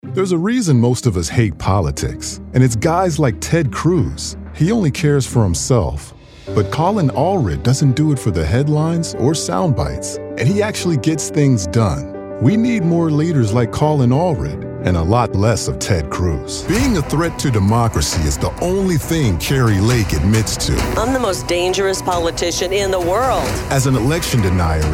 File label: Non Union English; Democrat